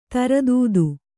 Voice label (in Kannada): "ಧೀರೋದಾತ್ತ", Kannada